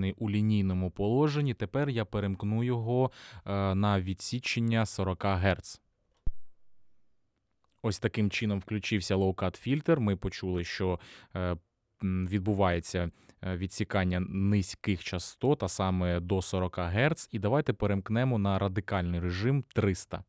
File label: lewitt lct 840 low cut filtr; Low Cut Фільтр; Lewitt LCT 840 – це конденсаторний, широкомембранний ламповий мікрофон за 1300 $